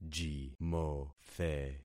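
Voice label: "jimothe", concatenated syllables; Affixing experiment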